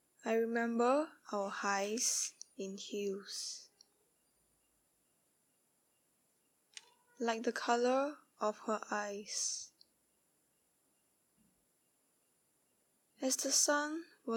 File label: Narration